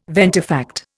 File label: "ventifact", Indian